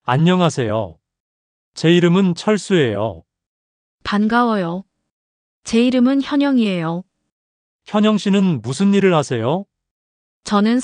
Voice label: Possessive Marker Reading, korean listening practice; Chapter 4